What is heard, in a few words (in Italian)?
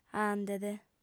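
"andet", sarda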